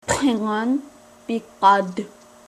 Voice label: Klingon alphabet